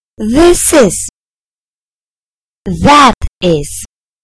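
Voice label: "this that", British English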